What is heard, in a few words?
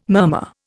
"murmur", Indian